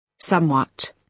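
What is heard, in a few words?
"somewhat", British English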